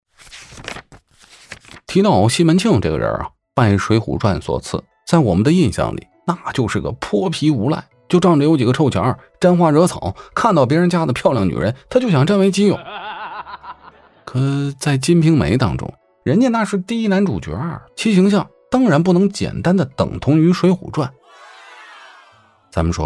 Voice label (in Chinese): 风趣搞笑拆书及影视二创配音